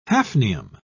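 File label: "hafnium", British English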